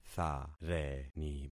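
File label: "thareni", concatenated syllables; Affixing experiment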